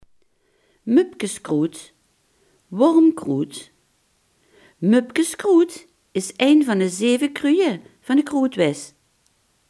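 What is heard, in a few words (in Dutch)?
"boerenwormkruid" Limburgish dialect word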